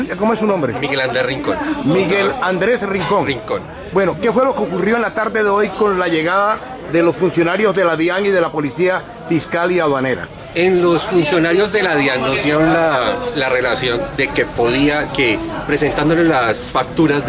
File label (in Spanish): Voz del contador